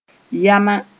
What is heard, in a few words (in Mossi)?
"yãma", moore